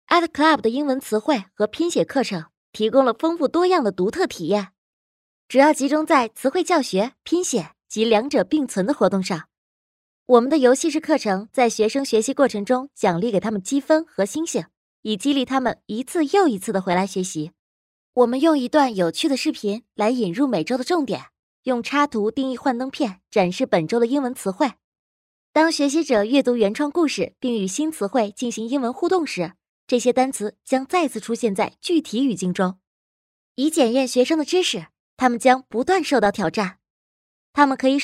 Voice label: chinese mandarin commercial